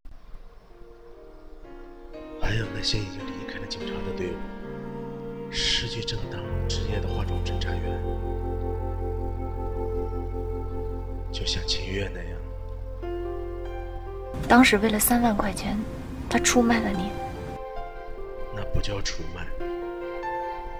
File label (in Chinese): 配音